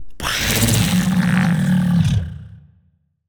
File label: portrait synthetic; Stellaris; machineage